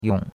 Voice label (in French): "yong3", chinois; Cours-de-Chinois